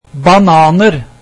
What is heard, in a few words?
bananas, norwegian